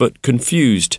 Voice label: but confused

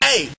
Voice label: "ay", voice

